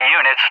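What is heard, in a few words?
"units", English; JTAC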